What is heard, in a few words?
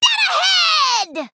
Female Gnome Attack